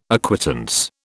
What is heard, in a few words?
"acquittance", British